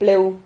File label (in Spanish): "pleu", Silabas